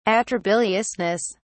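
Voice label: "atrabiliousness", English, British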